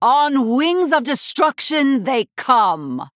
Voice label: Female Blood Elf